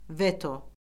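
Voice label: "veto", Azerbaijani; Orfoqrafiya Lüğəti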